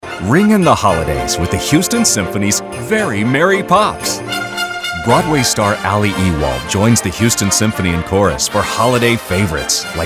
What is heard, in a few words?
Radio Spot